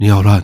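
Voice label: "ni hao", Chinese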